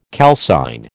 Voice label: "calcine", American

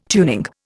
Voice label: "tuning", Indian

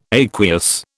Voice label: "aqueous", British

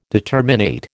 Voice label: "determinate", American

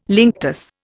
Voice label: "linctus", Indian

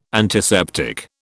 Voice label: "antiseptic", British

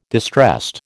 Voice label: "distressed", American

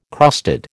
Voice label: "crusted", American

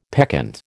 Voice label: "peccant", American

